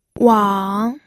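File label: "wang3", Mandarin Chinese, pinyin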